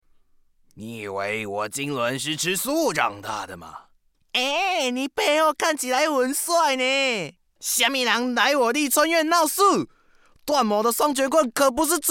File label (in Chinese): 國語 男 遊戲角色